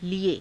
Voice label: "lie", Hindi